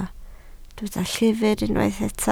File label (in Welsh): "llyfr", Welsh